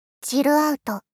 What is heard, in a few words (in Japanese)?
チルアウト ダウナー×中音域